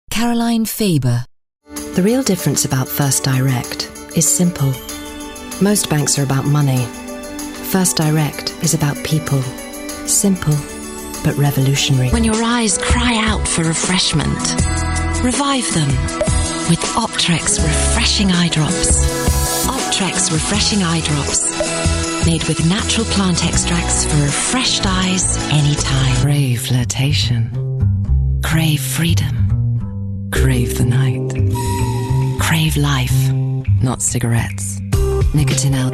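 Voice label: commercials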